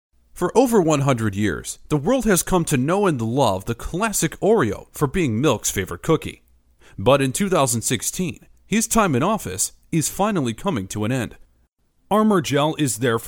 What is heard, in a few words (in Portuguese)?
ingles masculino usa